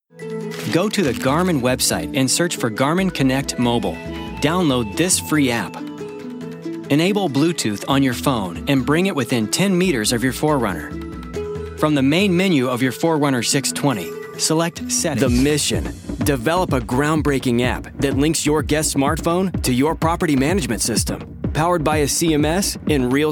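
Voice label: M, English US, male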